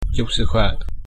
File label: cheers, vietnamese